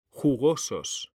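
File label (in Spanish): "jugosos", Spanish, male; mekanta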